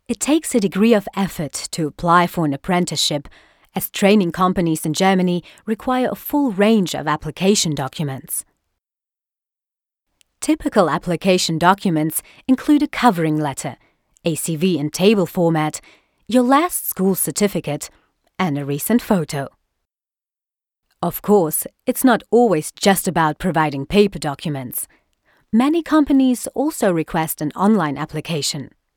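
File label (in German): UK English Freundlich; Fremdtake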